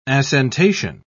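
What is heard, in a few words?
"assentation", British English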